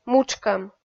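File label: "мутшкӧм", Komi, female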